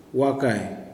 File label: "good", Kuman